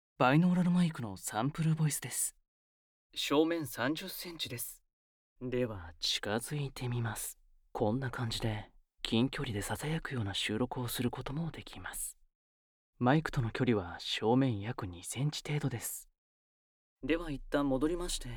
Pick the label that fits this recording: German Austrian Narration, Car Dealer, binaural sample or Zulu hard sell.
binaural sample